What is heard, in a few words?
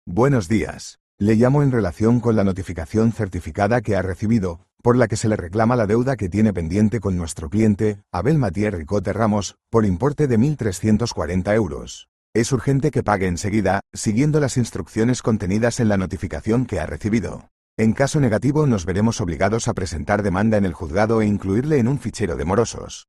audio call